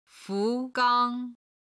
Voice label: "fu2gang1", chinese; chinese travel